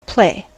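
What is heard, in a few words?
"play", US English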